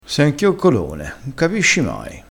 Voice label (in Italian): "chioccolone", crespinese